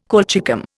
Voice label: "colchicum", Indian